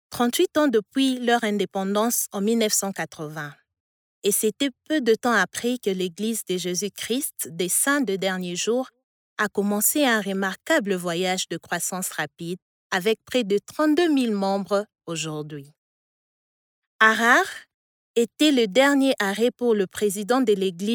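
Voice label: French, African, female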